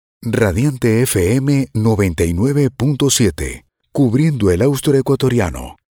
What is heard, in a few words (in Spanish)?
Produccion Radial